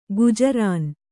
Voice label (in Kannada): "ಗುಜರಾನು", Kannada